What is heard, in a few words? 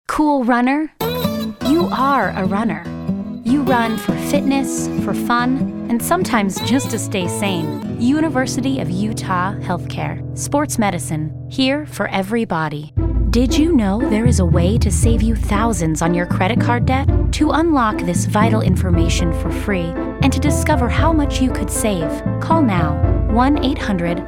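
Commercial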